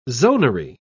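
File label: "zonary", British English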